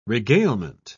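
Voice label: "regalement", British English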